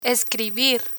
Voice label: "escribir", Spanish; verbs ir